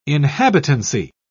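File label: "inhabitancy", British English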